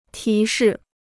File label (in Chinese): "提示", Chinese